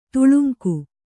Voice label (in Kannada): "ತೇಲುಂಗ", Kannada